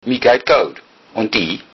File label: im fine, lowsaxon